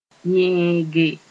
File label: "yẽege", Mooré